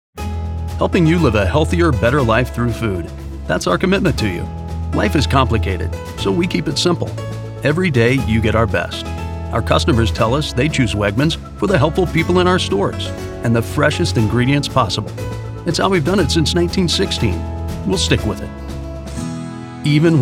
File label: Corporate